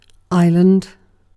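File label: "island", British English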